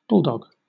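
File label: "bulldog", British English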